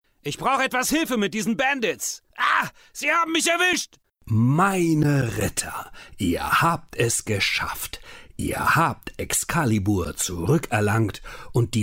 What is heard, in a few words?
male german comercial; ideasonora